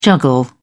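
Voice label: "juggle", British English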